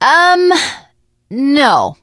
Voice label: initial meeting, teen girl; video game; source engine; left4dead2; survivor